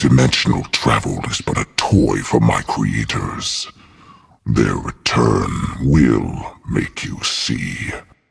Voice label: taunt directed; fenrir; janus